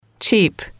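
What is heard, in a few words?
"cheap", English, female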